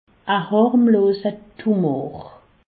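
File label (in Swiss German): "harmloser", Alsatian; medecine; tumeur bénigne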